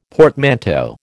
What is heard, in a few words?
"portmanteau", American